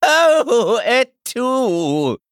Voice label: male night elf death

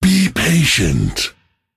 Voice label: Male Drust Emote ERROR SUMMON SICKNESS

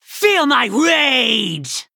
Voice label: Warrior Nonbinary Night Elf Attack

